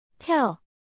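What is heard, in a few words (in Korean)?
"텨", Korean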